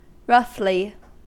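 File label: "roughly", US English; pronunciations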